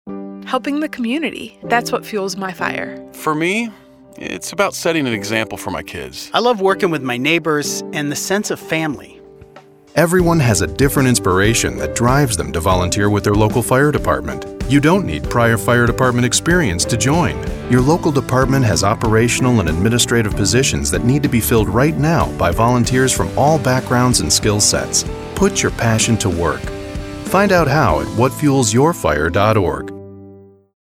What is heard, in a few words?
3 voices radio ad